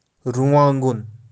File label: "runwaangun", Kashmiri